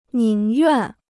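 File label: "宁愿", Chinese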